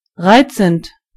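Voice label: cute, German voices words; gcompris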